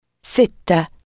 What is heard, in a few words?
number six Arabic